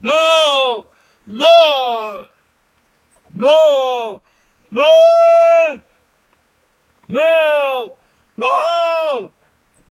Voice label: a man shouting doguuu, yell